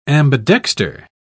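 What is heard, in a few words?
"ambidexter", English, British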